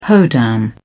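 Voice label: "hoedown", British English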